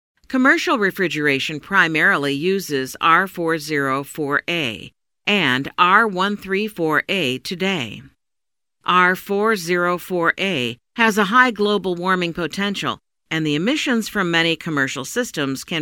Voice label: E-Learning refridgeration CO2